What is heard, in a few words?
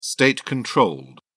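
"state-controlled", British English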